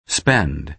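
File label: "spend", American English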